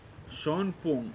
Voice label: "moon", Pingelapese